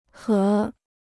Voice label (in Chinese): "合", Chinese